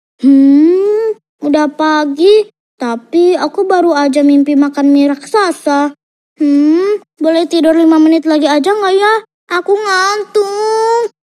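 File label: child CHARACTER; INDONESIA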